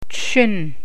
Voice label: rain, Gwich'in